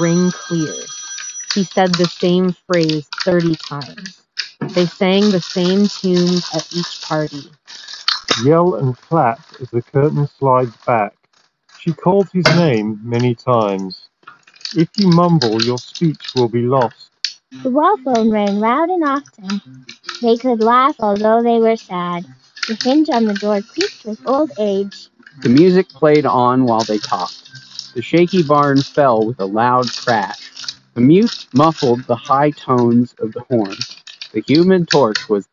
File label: Office microphone sample; TOZO NC9